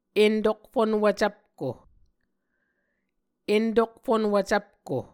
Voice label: "entokfunwv cvpko", Muscogee